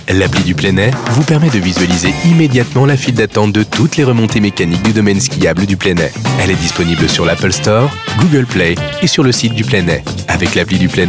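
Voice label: French, male, commercial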